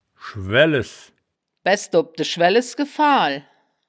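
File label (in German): "schwaelles", wittlicher woerterbuch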